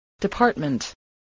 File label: "department", English